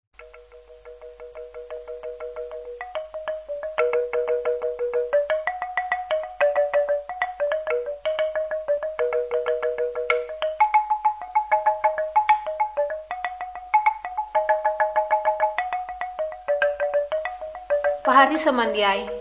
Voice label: kabar bahalap good news ngaju, drama; bible

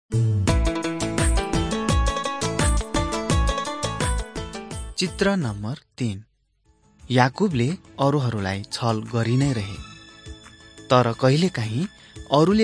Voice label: mighty men of god nepali

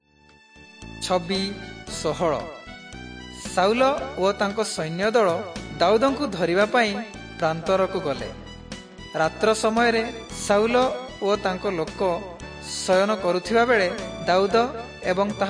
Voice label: southern oriya; servants of god

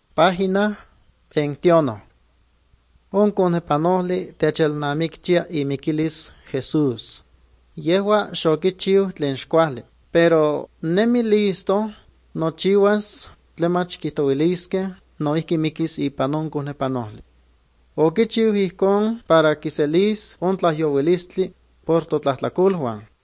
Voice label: good news, nahuatl guerrero chilapa

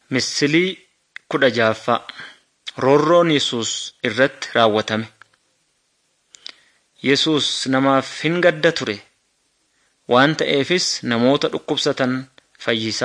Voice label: good news oromo wellega

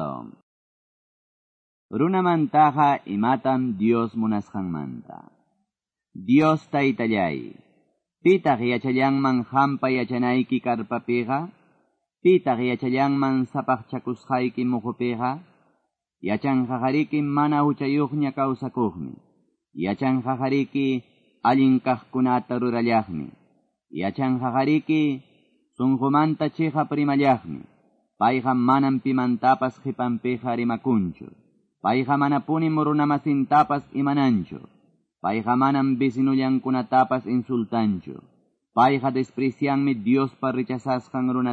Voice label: salmos, quechua ayacucho

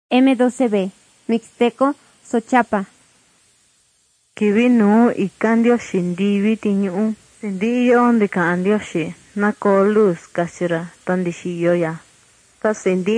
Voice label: mixtec diagnostic spanish mexico

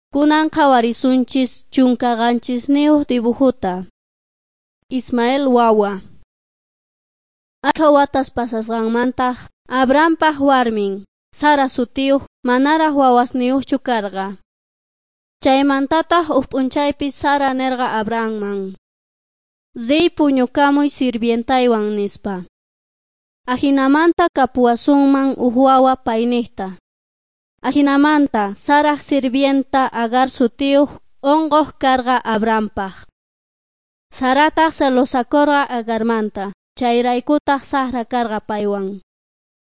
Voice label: beginning with god quechua s bolivian cochabamba

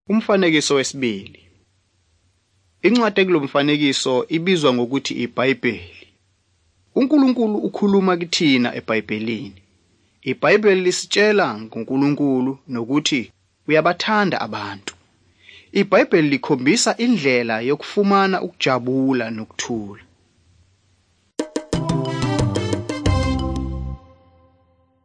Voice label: zulu bible; good news

